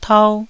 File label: "tau1", taiwanese Syllables